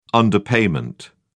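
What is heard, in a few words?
"underpayment", English, British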